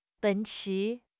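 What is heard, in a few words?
"奔驰", Chinese; HSK6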